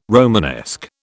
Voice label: "Romanesque", British

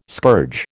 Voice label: "scourge", American

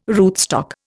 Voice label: "rootstock", Indian

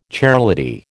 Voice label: "chairlady", American

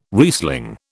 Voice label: "Riesling", British

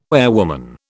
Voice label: "airwoman", British

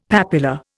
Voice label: "papula", Indian; Dictionary

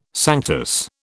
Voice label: "sanctus", British

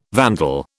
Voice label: "vandal", British

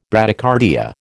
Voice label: "Bradycardia", American

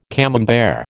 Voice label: "Camembert", American

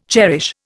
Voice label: "cherish", Indian

